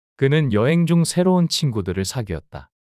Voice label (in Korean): 문장 남자